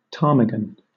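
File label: "ptarmigan", British English; pronunciations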